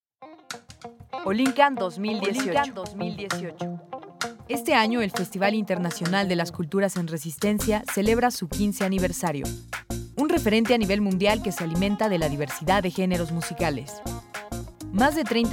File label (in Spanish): SPOT RADIO; OLLIN KAN 2018